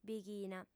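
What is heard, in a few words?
"bighina", female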